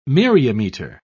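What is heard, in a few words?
"myriameter", British English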